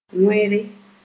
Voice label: "wẽre", Moore

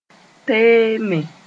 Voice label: "teeme", moore

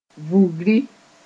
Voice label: "vugri", moore

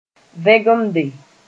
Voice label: "vẽgemde", moore

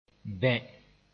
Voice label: "bẽ", moore

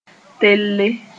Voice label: "telle", moore

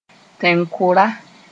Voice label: "tẽn-koara", Moore

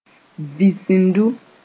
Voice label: "visindu", moore

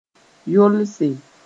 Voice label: "yolse", moore; loosen

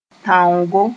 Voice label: "tãongo", moore; earth / mud clump

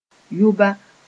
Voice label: "yʋba", moore

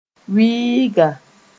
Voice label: "wɩɩga", moore

